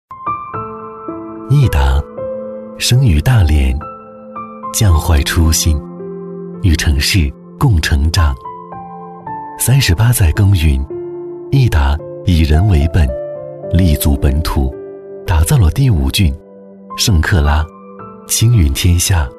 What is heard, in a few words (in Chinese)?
男 企业宣传 温暖质感磁性; 男90-高端质感 温和抒情; 亿达品牌宣传片